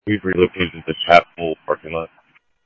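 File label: police radio dispatch, Scottsdale; SCTSDLE PD - PD1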